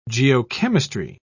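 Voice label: "geochemistry", British English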